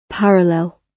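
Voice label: "parallel", British English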